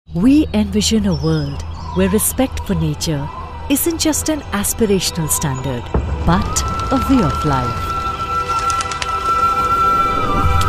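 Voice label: Documentary English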